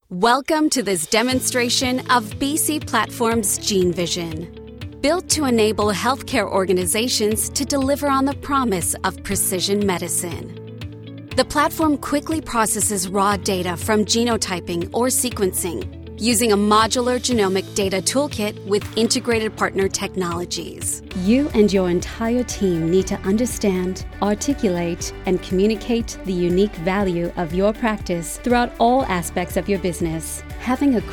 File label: corporate